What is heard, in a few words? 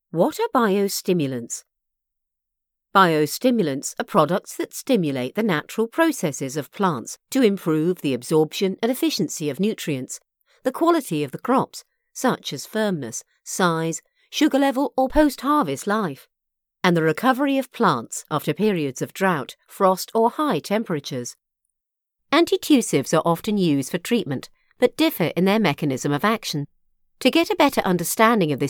E-learning